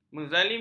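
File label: "menzalimi", Malay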